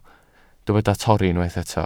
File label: "torri", Welsh